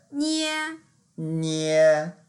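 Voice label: "nie1", Mandarin Chinese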